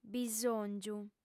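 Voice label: "bisòngiu", female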